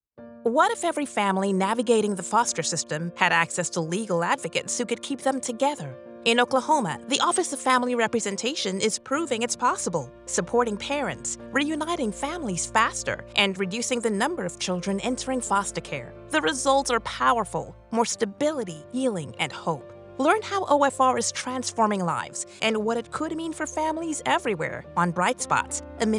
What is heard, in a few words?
Podcast Audio Ad; Oklahoma Nonprofit